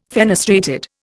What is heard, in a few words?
"fenestrated", Indian